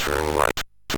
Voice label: MOS6581R3, Electronic Speech Systems